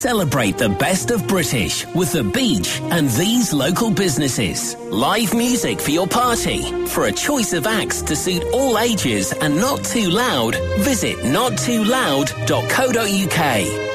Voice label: Radio Advert; The Beach